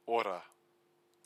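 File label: "ora", Māori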